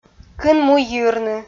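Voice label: "кын му йирны", Komi, female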